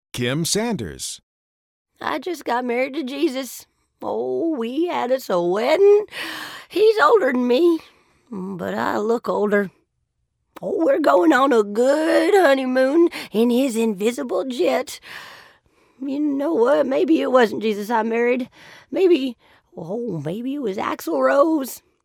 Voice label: HILLBILLY, Redneck